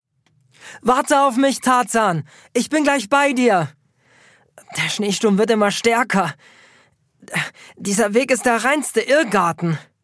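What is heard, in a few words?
German, male, audiobook; voice over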